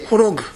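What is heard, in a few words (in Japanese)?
"horogu", 南山形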